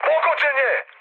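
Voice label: Serbian aircraft destroyed mood high; speech; War Thunder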